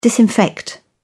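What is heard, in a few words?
"disinfect", British English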